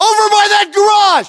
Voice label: US landmark near garage, battlechatter, english